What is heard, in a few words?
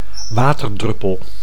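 "waterdruppel", Dutch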